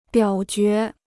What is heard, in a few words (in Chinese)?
"表决", Chinese